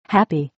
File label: "happy", English